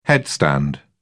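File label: "headstand", British English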